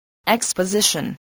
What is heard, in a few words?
"exposition", voice